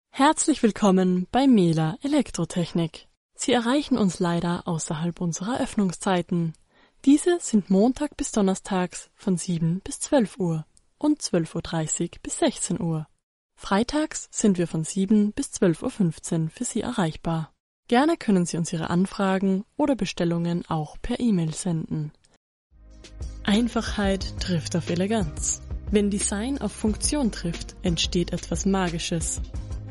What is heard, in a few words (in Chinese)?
德语女声